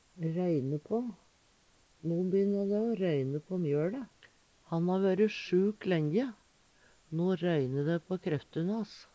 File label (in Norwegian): røyne på, Numedalsmål